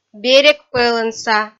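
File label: "берегпӧлӧнса", Komi, female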